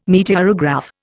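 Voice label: "meteorograph", English, Indian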